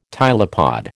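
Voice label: "tylopod", American English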